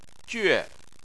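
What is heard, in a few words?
"jue" fourth tone, Chinese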